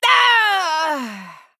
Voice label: Female Night Elf Death